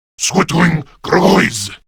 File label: Reload, Male; Warframe; Grineer Male; Battle Barks